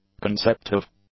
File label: "conceptive", English; adjectives